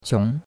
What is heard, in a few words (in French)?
"qiong2", chinois; Cours-de-Chinois